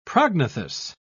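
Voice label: "prognathous", British English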